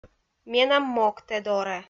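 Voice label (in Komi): "менам мог тэ дорӧ", Komi-Zyrian, female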